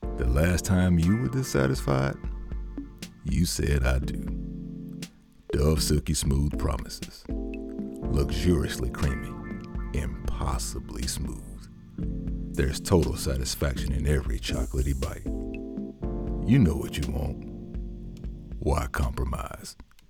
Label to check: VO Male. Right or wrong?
Wrong